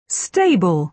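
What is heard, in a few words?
"stable", British English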